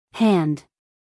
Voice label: "hand" US English, female; everyone-can-use-english